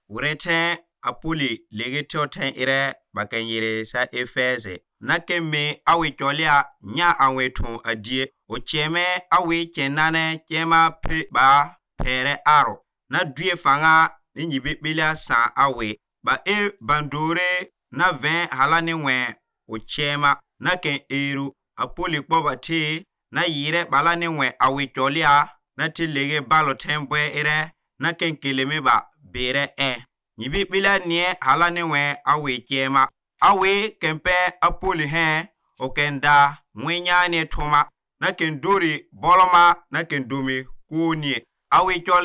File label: Pougouli; Dieu oeuvre a Ephese; God works in Ephesus through Paul